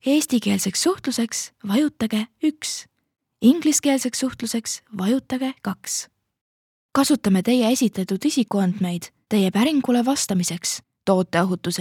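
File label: estonian interactive voice response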